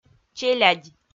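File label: "челядь", Komi, female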